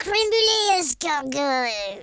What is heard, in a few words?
angry, speech, French